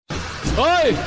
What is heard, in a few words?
"Oyee" ringtone; Shah Rukh Khan